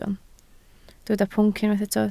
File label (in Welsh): "pwnc", Welsh